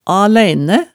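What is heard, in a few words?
"a-læine", Hemsedal dialect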